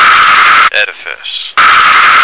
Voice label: "edifice", American